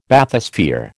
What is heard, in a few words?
"Bathysphere", American